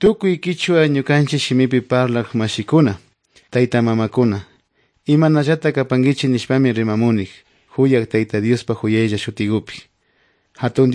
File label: good news quichua otovalo